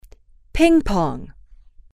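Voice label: "ping pong", English, female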